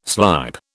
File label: "slype", British